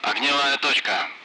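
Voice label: Speech, Russian; Allied Flight; target-bunker; DCS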